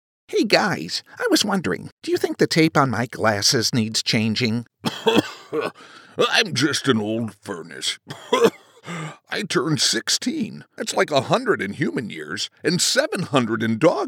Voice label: Characters, e-training narration voice